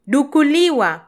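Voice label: "dukuliwa", Kenyan Swahili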